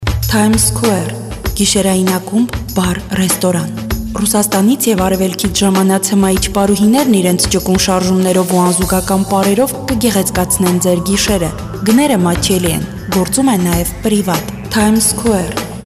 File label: female armenian voice locutora armenia